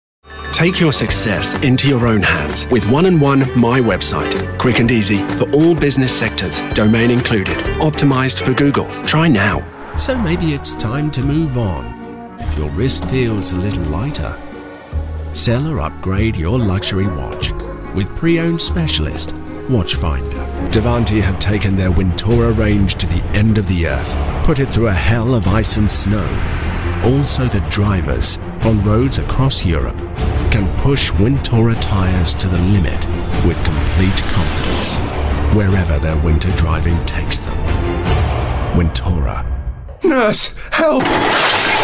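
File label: Commercial, New Zealand English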